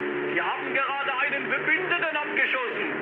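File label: German player killed ally mood high, speech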